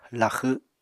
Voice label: "laxw", Apatani

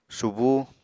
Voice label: "subú", Apatani

